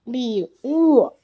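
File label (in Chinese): "li3wu4", Mandarin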